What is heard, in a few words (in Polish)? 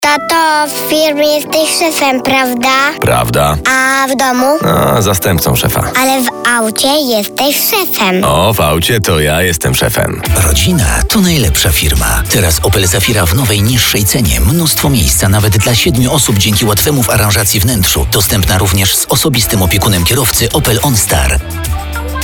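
reklama, advert; zafira szef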